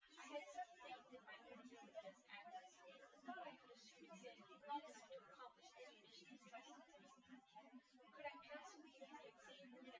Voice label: female; SiSEC08; SiSEC underdetermined